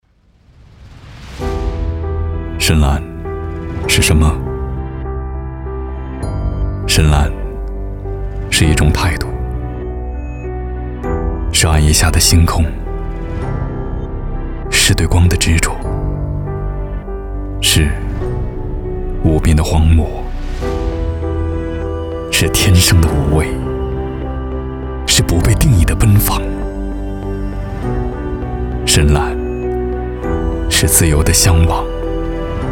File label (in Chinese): 男 科技感; 对光的执着